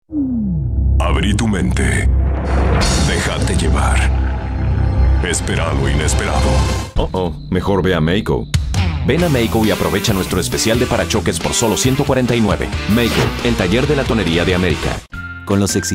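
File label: latam male commercial; voice demos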